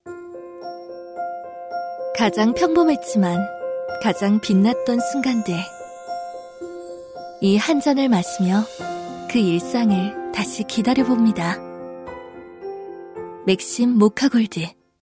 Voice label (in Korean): 광고 부드러운 자연스러운 편안한 따뜻한